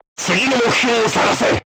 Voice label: voice message target destroyed, crew tanks japanese